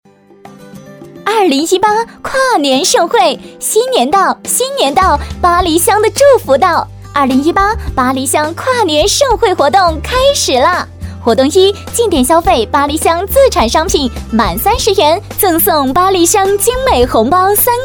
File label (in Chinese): 女 活力 跨年盛会活动; 方言